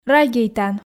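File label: "радейтан" Komi, female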